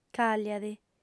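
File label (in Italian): "càllia·ti", lingua sarda, female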